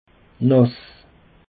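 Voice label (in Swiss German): "nass", Alsatian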